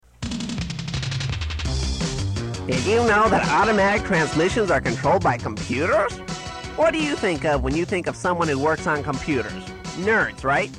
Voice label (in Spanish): comercial